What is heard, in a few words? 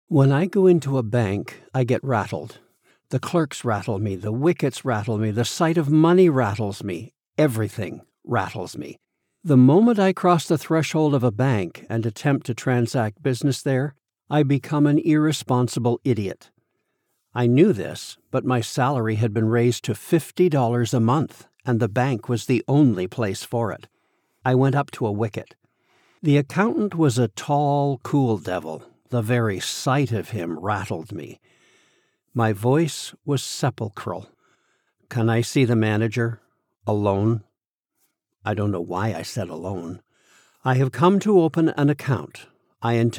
Fiction Humor 1st POV